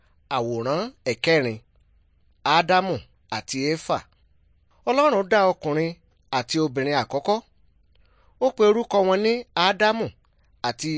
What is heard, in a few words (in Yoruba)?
good news yoruba